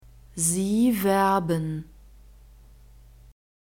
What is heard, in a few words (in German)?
"sie werben", German; verbs present tense irregular